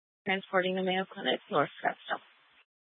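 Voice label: Gilbert, Fire Department, scanner; C4 Gilbert, QC, Superstition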